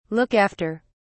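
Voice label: "look after", British English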